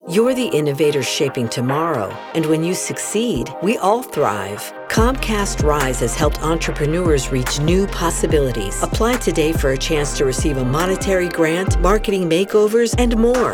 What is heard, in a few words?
english; COMCAST RISE 2025